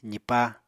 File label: "zipá", Apatani